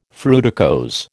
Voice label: "fruticose", American

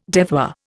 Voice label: "devoir", Indian

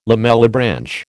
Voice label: "lamellibranch", American

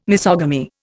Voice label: "misogamy", Indian

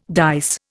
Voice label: "dice", Indian

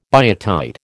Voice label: "biotite", American

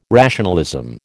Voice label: "rationalism", American

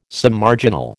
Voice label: "Submarginal", American

